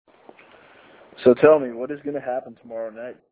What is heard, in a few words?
Audio message